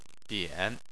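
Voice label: "bian" Chinese, third tone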